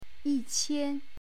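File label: "yi1qian1", Chinese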